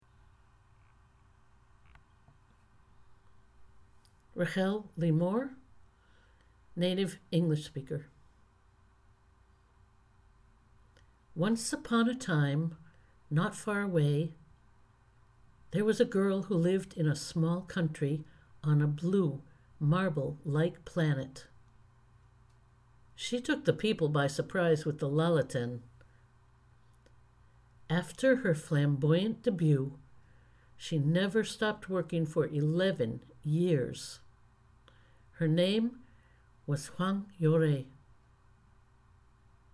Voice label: grandma monologue